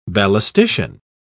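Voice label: "ballistician", British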